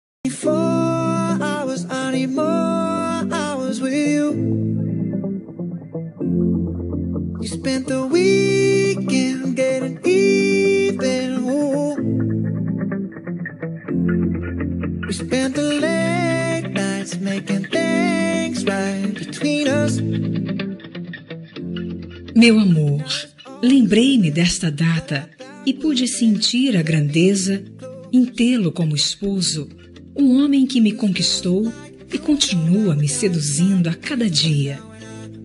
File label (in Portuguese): casamento fem, Telemensagem de Aniversário de Casamento Romântico – Voz Feminina; Telemensagens para todas as ocasiões